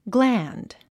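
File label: "gland", English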